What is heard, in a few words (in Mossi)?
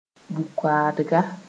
"bug-koakdga", moore